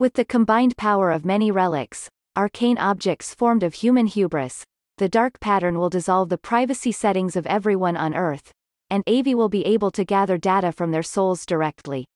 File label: Monologue; Going Under; Avie